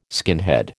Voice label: "skinhead", American